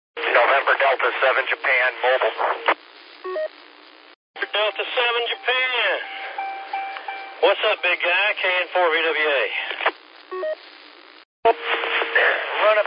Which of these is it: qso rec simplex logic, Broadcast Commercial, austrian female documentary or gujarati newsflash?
qso rec simplex logic